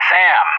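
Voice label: "SAM" English, speech; JTAC; DCS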